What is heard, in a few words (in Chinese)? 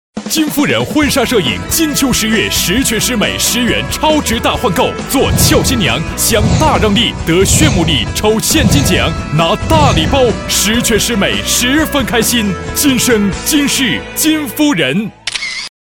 男 广告 促销 婚纱影楼, 国语男声配音 大气